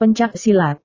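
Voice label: "pencak silat", Indonesian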